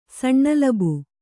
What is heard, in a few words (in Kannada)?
"ಸಣ್ಣಲಬು", Kannada